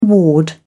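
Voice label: "ward", English, British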